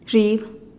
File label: "zhiib" goat, teotitlan